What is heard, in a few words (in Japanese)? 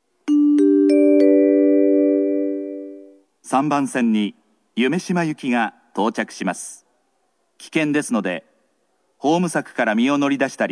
夢洲行き, 中央線車内放送・駅放送; 森ノ宮3番線